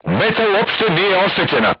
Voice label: voice message armor not pierce, crew tanks serbian